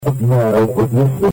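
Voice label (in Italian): "entrare", Italian; Entrare qua gente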